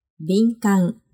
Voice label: "binkan", Japanese; sensibility